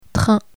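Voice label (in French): "train", French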